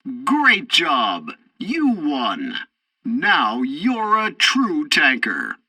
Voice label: tutorial tank arcade victory, english; War Thunder